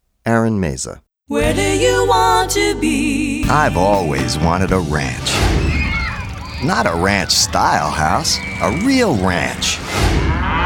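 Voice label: commercial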